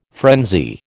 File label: "frenzy", American